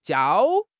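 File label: "jiao1", Mandarin Chinese; HSK4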